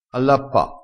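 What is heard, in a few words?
"allappa", Italian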